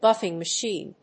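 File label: "Buffing machine", English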